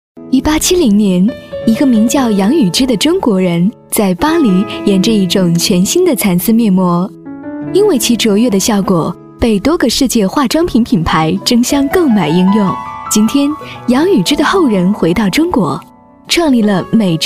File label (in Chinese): 女, 蚕丝面膜蜜语